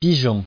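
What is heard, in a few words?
"pigeon", French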